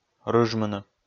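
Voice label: "рыжмыны", Komi, male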